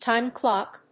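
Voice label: "time clock", English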